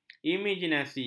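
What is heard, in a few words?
"imaginasi", Malay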